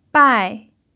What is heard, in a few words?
"bai4", Mandarin pinyin